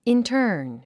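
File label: "intern", English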